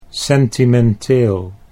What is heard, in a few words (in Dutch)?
"sentimenteel", Dutch